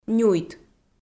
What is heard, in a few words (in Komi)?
"нюйт", Komi